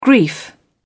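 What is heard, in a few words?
"grief", British English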